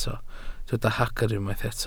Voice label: "hagr", Welsh

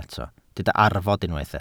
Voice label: "arfod", Welsh

